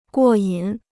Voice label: "过瘾", Chinese

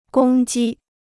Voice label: "公鸡", Chinese

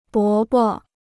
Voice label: "伯伯", Chinese

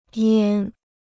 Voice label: "烟", Chinese

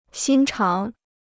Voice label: "心肠", Chinese

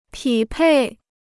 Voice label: "匹配", Chinese

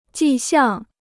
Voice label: "迹象", Chinese

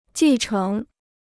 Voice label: "继承", Chinese

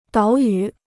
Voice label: "岛屿", Chinese

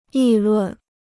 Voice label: "议论", Chinese

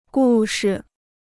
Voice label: "故事", Chinese

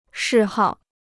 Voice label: "嗜好", Chinese

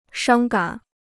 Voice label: "伤感", Chinese

